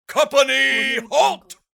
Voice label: military officer company halt

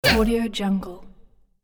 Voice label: cartoon voice impact

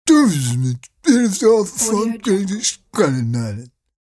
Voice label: cartoon bear talk

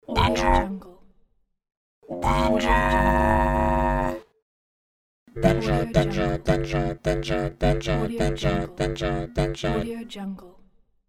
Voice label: robot voice danger

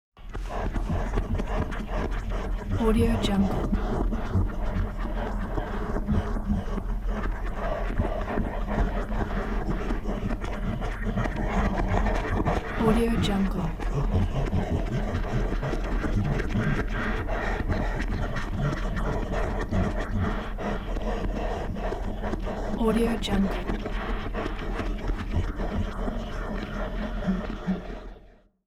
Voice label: tension multiplexed low gibberish; soundmorph

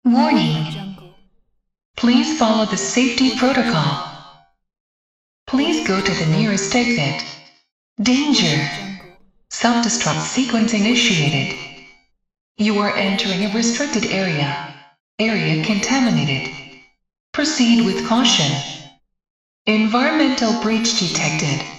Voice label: ai narrator environmental hazards pack; blueaudio

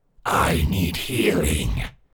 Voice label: undead pain vocal i need healing

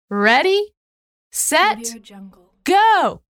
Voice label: female voice ready set go

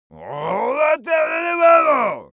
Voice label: human vocal male gibberish complaining

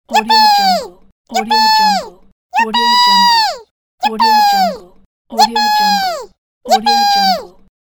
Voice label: cartoon girl yippee pack

